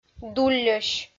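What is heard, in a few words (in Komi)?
"дулльӧсь", Komi, female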